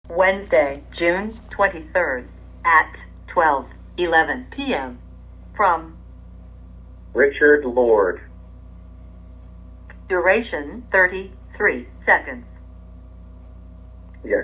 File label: Phone Message